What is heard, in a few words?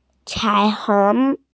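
"cai3hong2", Mandarin Chinese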